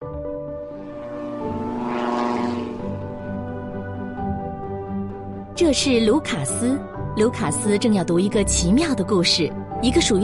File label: China Product Video